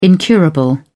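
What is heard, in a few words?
"incurable", British English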